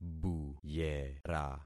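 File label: "buyera", concatenated syllables; Affixing experiment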